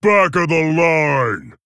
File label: Male Tauren Attack